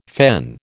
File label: "fen", American